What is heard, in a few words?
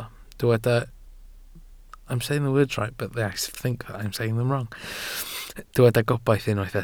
"gobaith", Welsh